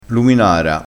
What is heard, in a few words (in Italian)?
"luminara", crespinese